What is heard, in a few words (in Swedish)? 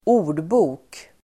"ordbok", Swedish